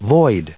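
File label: "void" English, US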